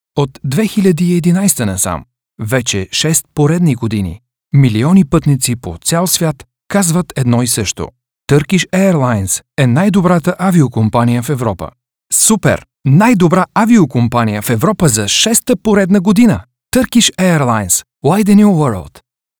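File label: Bulgarian, male, corporate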